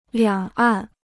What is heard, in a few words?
"两岸", Chinese